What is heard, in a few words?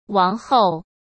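"王后", Mandarin Chinese; chinese flashcards; hsk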